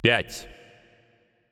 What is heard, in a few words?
Announcer Russian Count